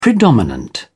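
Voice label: "predominant", English, British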